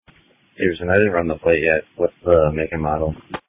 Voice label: Police Tactical Encrypted